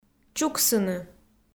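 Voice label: "чуксыны", Komi, female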